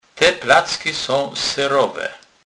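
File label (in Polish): "serowe", Kurpie dialect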